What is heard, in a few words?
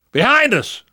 watch out behind, survivor voice; namvet; Left 4 Dead 2; left4dead2 dlc2